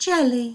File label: "jelly", English